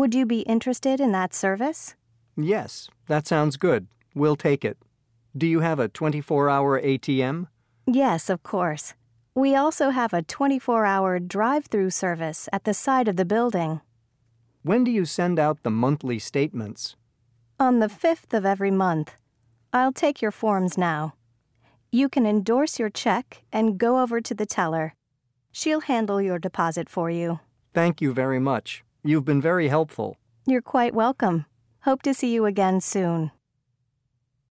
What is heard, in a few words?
Going to the Bank Dialogue Reading